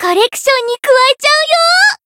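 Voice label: variable chant, Japanese; ilulu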